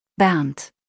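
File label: "Bernd", German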